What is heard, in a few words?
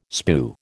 "spue", American